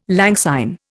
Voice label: "lang syne", Indian